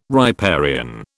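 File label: "riparian", British English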